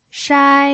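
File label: "shai" Mandarin Chinese, first tone, pinyin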